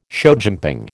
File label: "showjumping", American